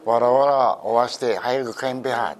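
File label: warawara, Minamiyamagata dialect Japanese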